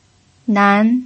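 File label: "nan", pinyin, second tone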